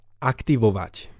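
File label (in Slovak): "aktivovat", Slovak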